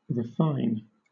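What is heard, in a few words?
"refine", British English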